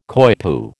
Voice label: "coypu", American

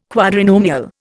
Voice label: "quadrinomial", Indian

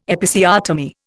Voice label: "Episiotomy", Indian

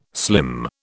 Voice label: "slim", British

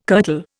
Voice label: "kirtle", Indian

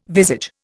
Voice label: "visage", Indian

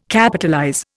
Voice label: "Capitalize", Indian

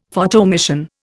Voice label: "Photoemission", Indian; Dictionary

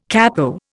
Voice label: "capo", Indian

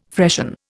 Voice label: "freshen", Indian

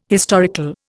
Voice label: "historical", Indian

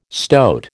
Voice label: "stoat", American

